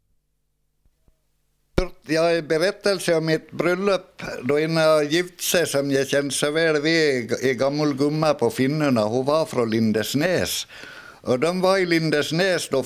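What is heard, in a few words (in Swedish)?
Nås Dalarna, Svenska sagor, dialekter; Folkminnen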